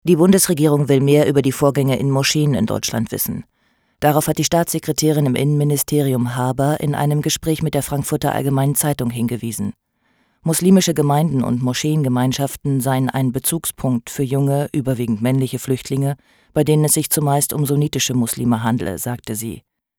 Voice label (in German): nachher News